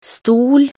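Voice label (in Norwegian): "stol", Norwegian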